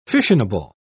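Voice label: "fissionable", British English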